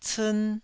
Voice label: "ciin1", taiwanese